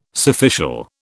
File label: "surficial", British